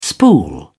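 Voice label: "spool", British English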